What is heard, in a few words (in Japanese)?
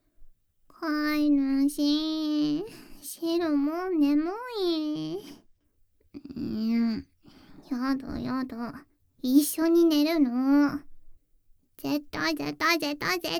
アラーム音声(おやすみ); 特製♪シロのアラーム; メスガキ猫を甘わからせ!!